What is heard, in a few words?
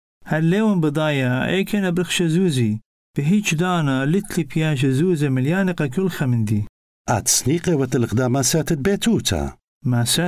household budgets assyrian